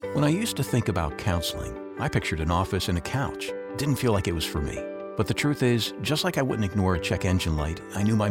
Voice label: TELEHEALTH RADIO PSA